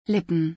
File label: Lips in German